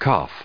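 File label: "cough", US English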